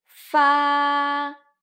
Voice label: "fa", Chinese